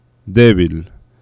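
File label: "debil", Spanish; laboratori fonetica; oclusives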